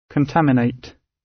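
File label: "contaminate", British English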